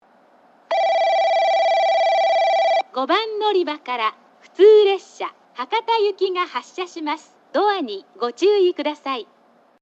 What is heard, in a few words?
yoshizuka local hakata; 5番のりば発車放送（普通 博多行き）; 吉塚